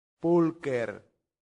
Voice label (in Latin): "pulcher", latin; santillana